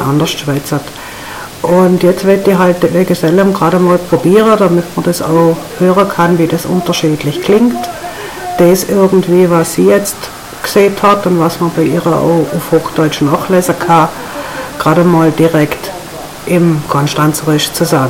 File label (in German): dialekt text